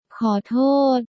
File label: Thailand Sorry "Khor Toad"